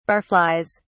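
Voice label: "barflies", British English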